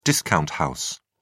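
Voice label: "discount house", English, British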